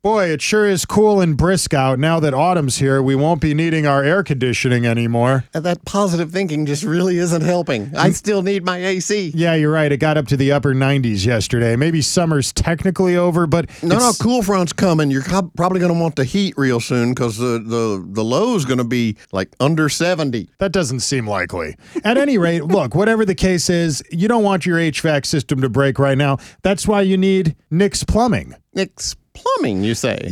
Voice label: RADIO AD; nicks plumbing radio ads